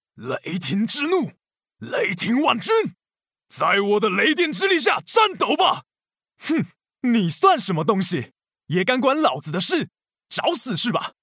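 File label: Taiwan, male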